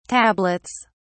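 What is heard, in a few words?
"tablets", British English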